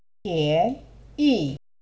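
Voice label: "pian yi pian yi", Chinese tone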